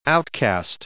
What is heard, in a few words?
"outcast" noun